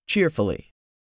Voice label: "cheerfully", english; 3000 words